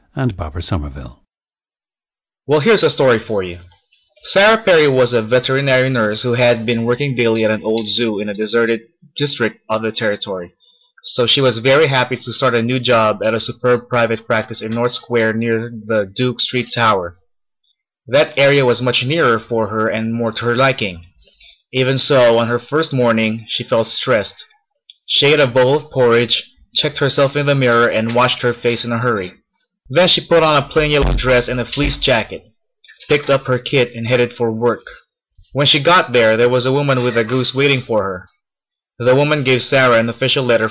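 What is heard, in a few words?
philippines, dialects of English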